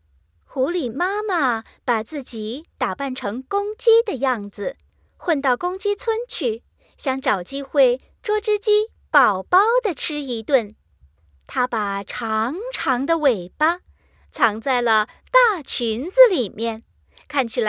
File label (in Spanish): locutora china